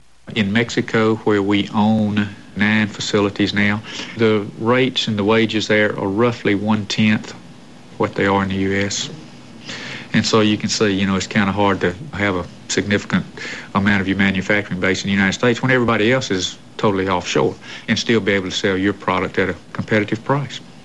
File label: Alabama male, dialect